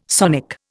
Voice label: "sonic", Indian

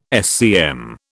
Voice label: "SCM", British

